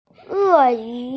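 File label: "eyu", Mandarin Chinese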